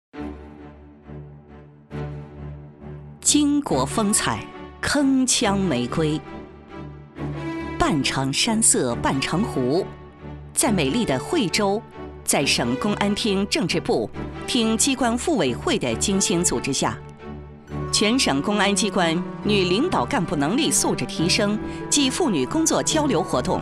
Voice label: 女-党建专题; 巾帼建功

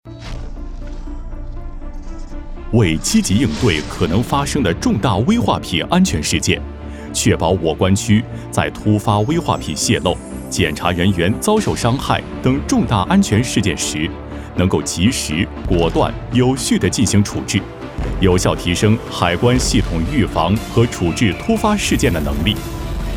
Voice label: 男 专题片 大气 海关; 济南海关

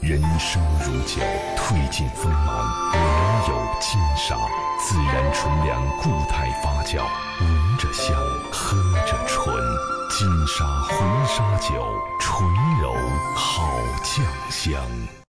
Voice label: 男 宣传片 酒; 金沙酒

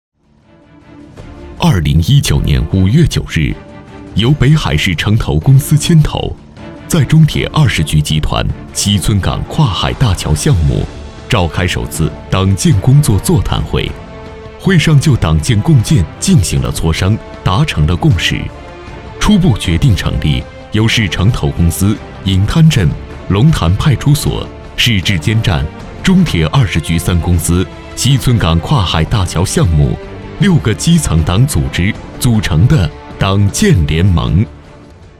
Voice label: 男-专题汇报-基层党建

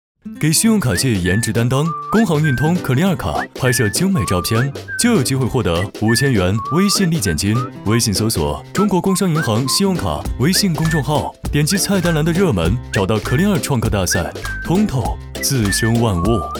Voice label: 男 银行宣传片; 工商银行; clear卡

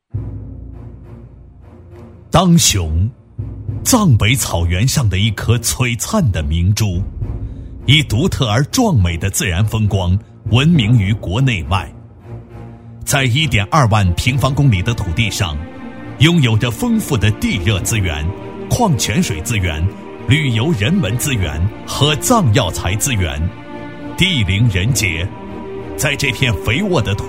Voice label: 男 政府类 扶贫; 当雄

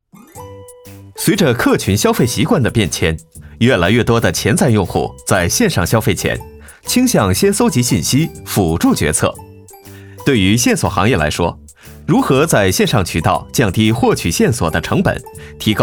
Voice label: 男, MG; 飞碟说

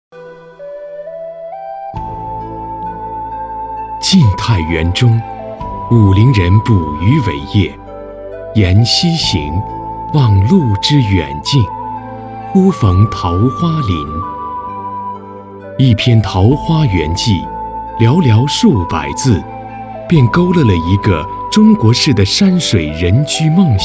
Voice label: 英男 古风 悠远

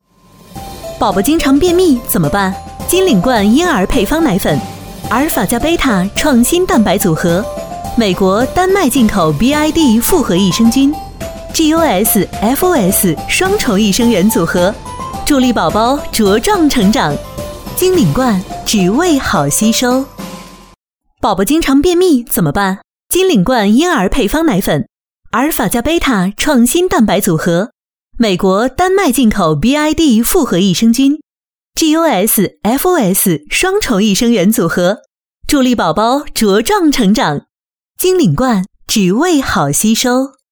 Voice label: 女 品牌广告 母婴奶粉; 金领冠

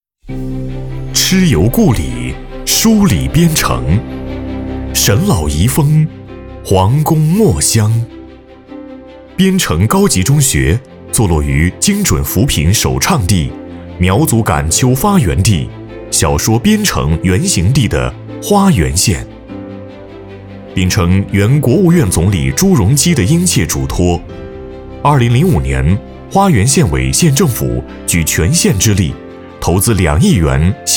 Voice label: 男 专题宣传 大气温暖积极向上 学校

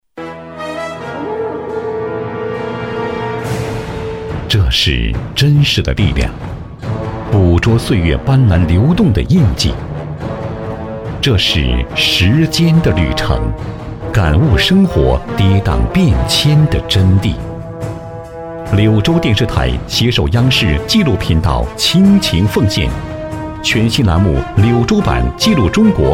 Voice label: 男,大气浑厚 中医介绍节目预告

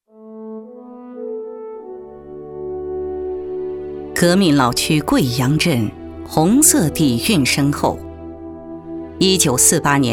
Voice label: 女 红色专题 红色历史

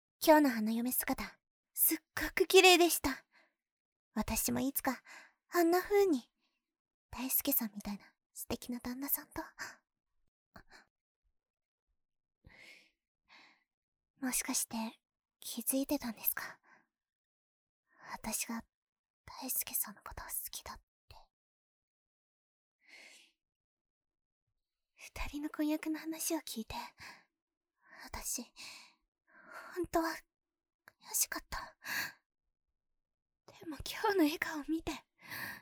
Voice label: 外籍日语女 角色 温柔少女

